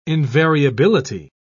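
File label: "invariability", English, uk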